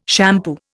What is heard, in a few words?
"shampoo", Indian